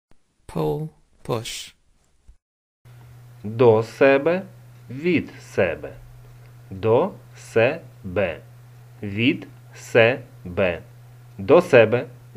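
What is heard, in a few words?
Ukrainian Pull push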